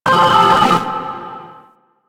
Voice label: Glitched Voice; Ambience; Warframe; Orokin Moon